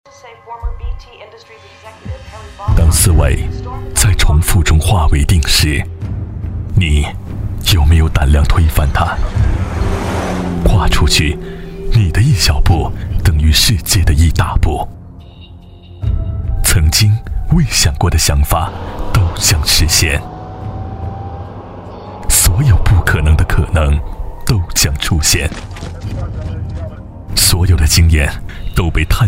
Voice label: 男60 品牌广告; 奥迪A8L探索无限; 交广主播

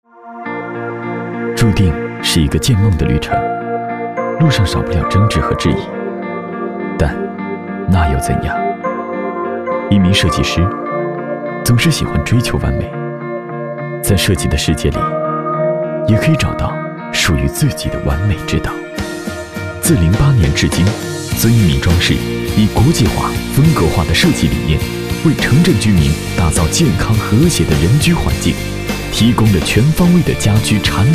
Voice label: 旁白 设计师, 国语男声配音; 陈欧体风格配音

